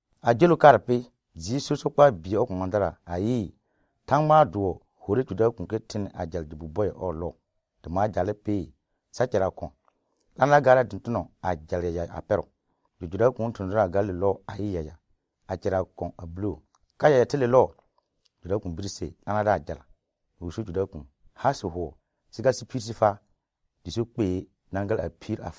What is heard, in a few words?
Lobiri